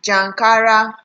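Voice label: "jankara", Nigerian Pidgin, female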